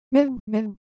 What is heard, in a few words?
Japanese, Ideo Phones